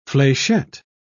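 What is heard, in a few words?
"flechette", British English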